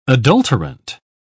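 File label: "adulterant", British English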